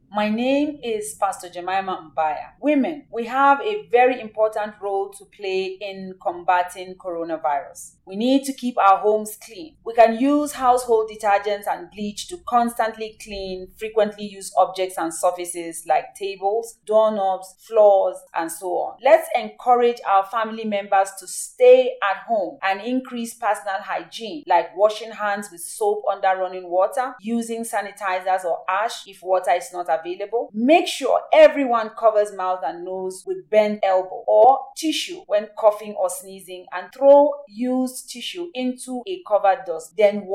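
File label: PLATEAU FEMALE CHRISTIAN RELIGIOUS LEADER English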